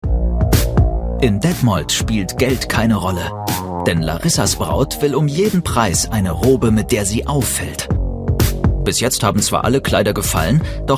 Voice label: commentary vox